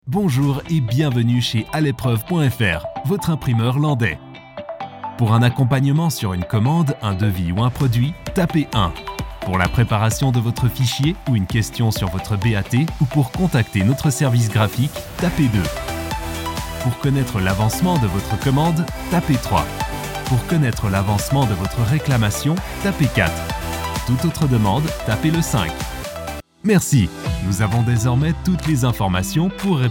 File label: french male telephone IVR